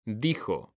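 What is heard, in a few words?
"DIJO", Spanish; mekanta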